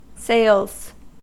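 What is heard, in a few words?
"sales", American English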